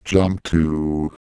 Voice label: jump, Microsoft Sam; speech